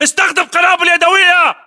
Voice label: order action grenade, battle chatter, english

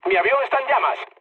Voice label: on fire mood low; War Thunder

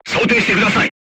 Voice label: voice message load, crew tanks japanese

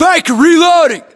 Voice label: inform reloading, US

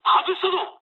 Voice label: bomb failure mood low, speech jp; War Thunder

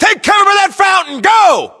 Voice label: order cover fountain, US

